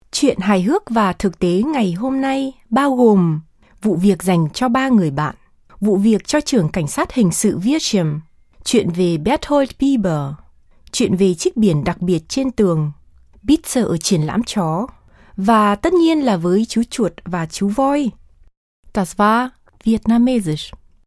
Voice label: vietnamesisch

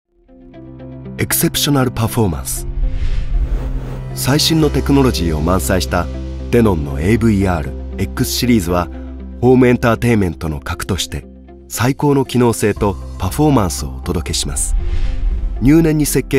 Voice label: Erklaerungsviedo E-Learning

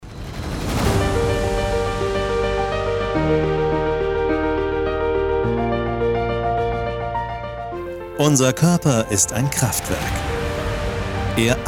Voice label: Imagefilm Voiceover; Curasan

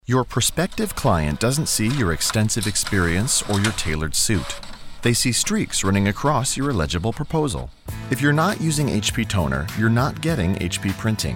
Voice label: us profisprecher werbung

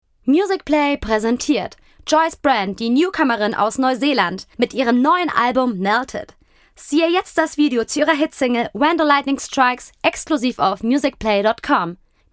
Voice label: Werbung

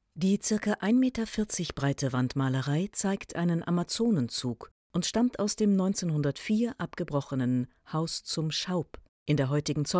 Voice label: Museumsguide Bildbeschreibung, osteuropaeisch akzent

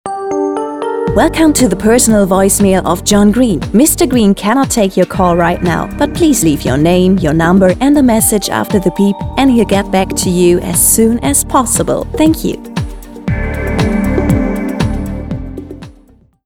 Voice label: mit Musik

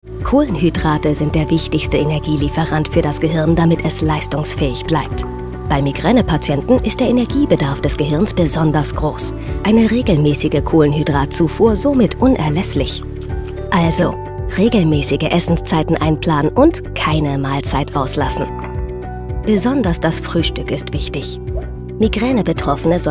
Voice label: Lehrfilm Kopfschmerzwissen

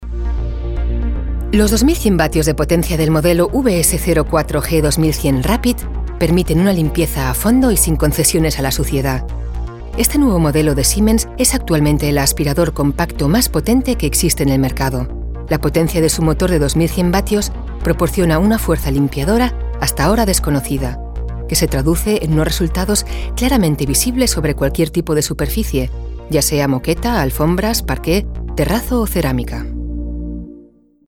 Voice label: industriefilm

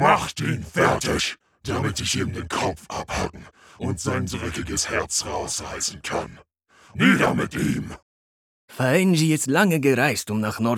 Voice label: Computerspiel Synchronisation

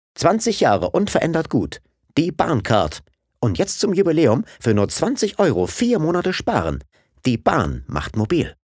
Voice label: Werbung normale Stimme

